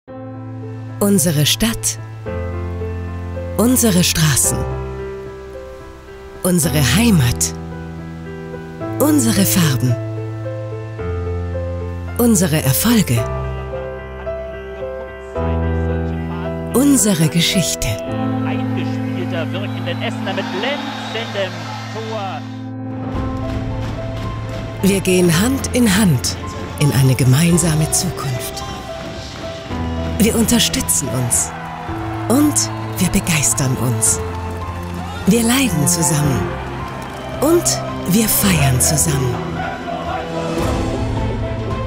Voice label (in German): Imagefilm Stimme; tusem